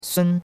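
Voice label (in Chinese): "sun1" Chinese; Cours-de-Chinois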